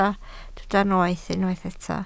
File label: "noeth", Welsh